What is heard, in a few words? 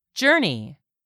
"journey", English word; 3000 words